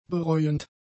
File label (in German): "bereuend", German; adjectives